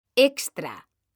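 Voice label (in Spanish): "extra", Spanish; mekanta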